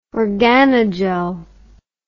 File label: "organogel", English word